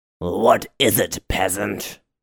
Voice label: Peasant - mean